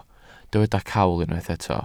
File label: "cawl", Welsh